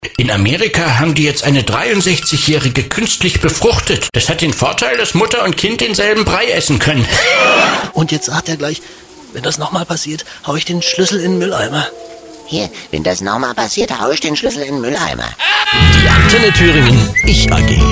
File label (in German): comedysprecher; Werbesprecher; stimme sprachprobe sprachdemo